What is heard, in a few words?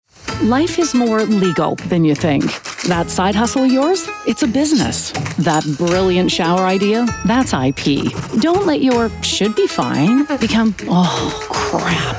Commercial